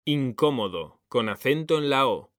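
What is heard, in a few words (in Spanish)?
"incómodo", Spanish, male; mekanta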